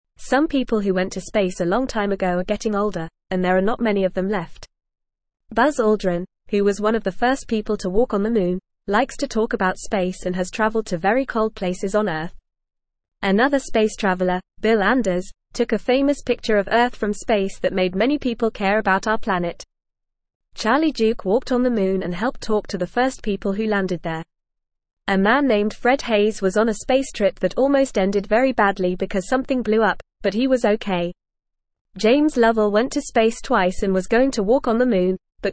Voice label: English Newsroom Lower Intermediate FAST Reading; Space Travelers Our Heroes Who Explored the Moon